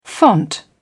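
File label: "font", British English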